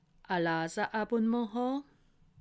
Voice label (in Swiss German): "leserabonnement", alsace